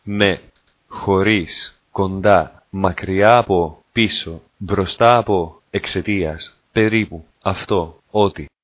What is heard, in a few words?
greek words